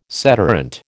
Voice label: "sederunt", American